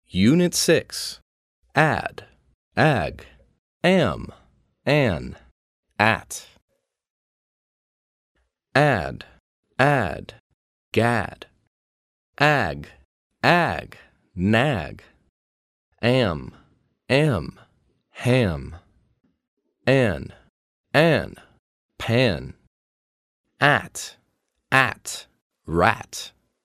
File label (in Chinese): 外籍男英 外语 美式英语 课件样